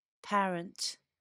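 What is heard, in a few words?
"parent", British English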